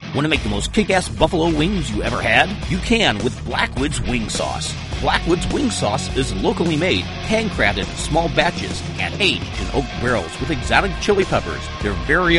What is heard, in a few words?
wing sauce commercial; blackwoods